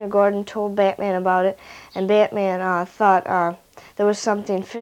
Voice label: Conversation with 12 year old white female Detroit Michigan, american english dialect